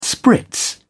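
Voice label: "spritz", English, British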